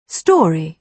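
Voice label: "story", British English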